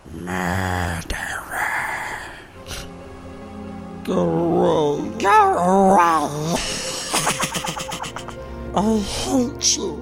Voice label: Impressions; LotR